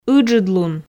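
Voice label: "ыджыдлун", Komi, female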